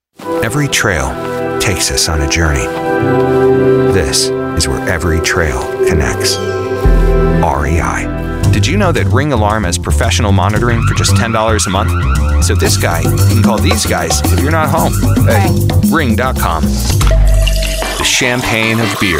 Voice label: Commercial